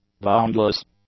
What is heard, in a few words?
"boundless", English; adjectives